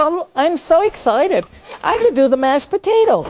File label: happy, speech; fertig classic games; ethel